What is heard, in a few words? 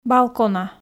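"балкона", Komi, female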